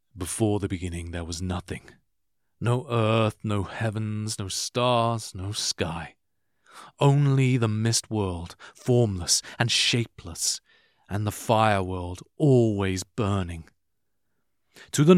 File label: narration